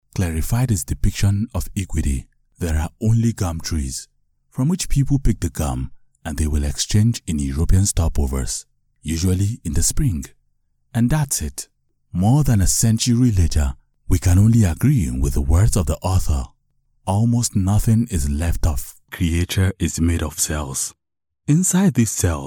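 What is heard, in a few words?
African English male narration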